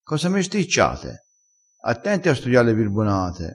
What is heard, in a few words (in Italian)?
"mesticcia", crespinese